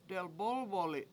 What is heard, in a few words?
"delbOlboli7", Washo; root medicine for eyes